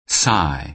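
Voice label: "sigh", American English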